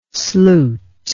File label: "sluit", english